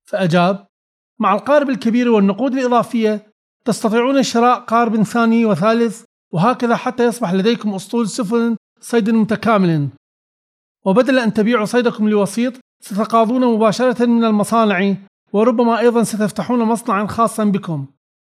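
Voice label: Arabic male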